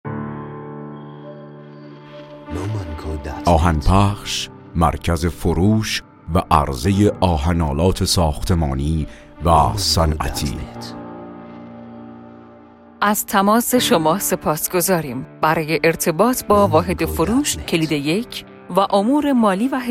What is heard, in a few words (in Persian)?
نمونه متن تلفن گویا به صورت ترکیبی